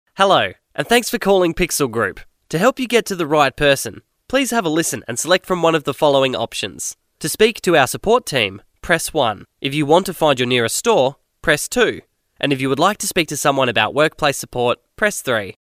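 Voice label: Australian phone voice, male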